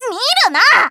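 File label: story, Japanese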